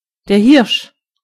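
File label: deer, German voices words; gcompris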